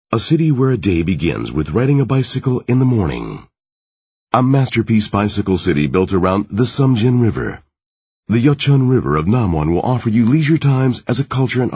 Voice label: American English, M